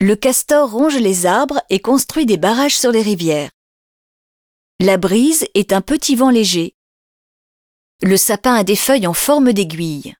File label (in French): balade nature voice